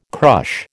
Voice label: "crush", American